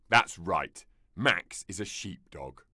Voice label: working dog quiz sheepdog yes, en; werkhonden